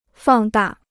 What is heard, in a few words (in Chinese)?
"放大", Chinese